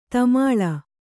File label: "ಧೂಮಲ", Kannada